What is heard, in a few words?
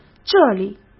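"这里", Mandarin Chinese; chinese-flashcards; hsk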